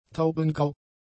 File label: "taubengrau", German; adjectives